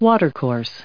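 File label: "watercourse", English, US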